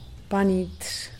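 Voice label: "panit", Teotitlán del Valle Zapotec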